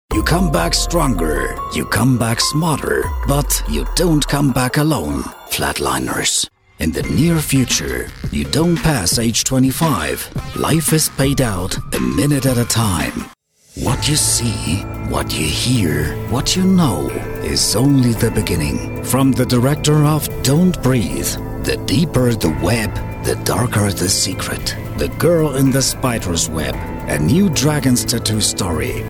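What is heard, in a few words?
German, male, English Trailers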